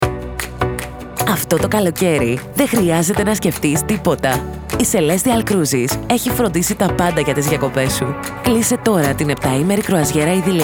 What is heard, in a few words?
greek others